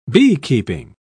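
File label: "beekeeping", English, British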